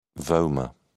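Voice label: "vomer", British English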